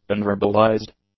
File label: "unverbalized", english; adjectives